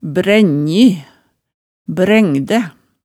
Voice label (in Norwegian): "brænji, brægdæ", hemsedalsmaalet tale